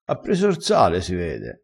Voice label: "prende r sale", crespinese

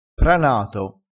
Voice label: "pranato", crespinese, vocabolario dialettale

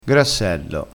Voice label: "grassello", vocabolario dialettale

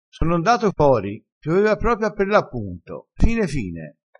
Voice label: "apperlappunto", crespinese

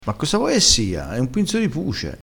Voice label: "pinzo", dialettale, crespinese

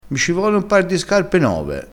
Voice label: "par", crespinese

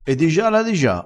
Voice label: "digiala", crespinese

